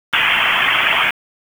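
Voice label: Police Dispatch, p25; Wake Forest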